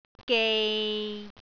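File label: "gei6", Cantonese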